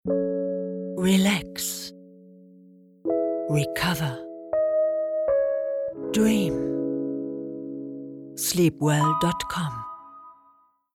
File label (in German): Werbung; Sleepwell